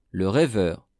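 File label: "le reveur", French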